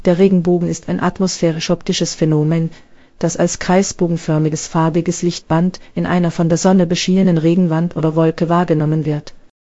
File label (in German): speaker, de DE; piper-voices